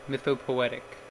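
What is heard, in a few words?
"mythopoetic", English, American accent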